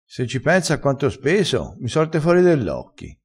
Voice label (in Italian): "sorti fori", crespinese